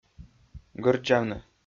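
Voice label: "гӧрддзавны", Komi-Zyrian, male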